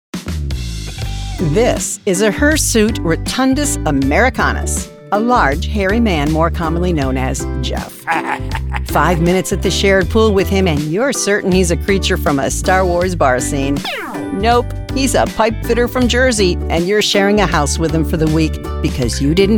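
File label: Explainer, Professional Female Voiceover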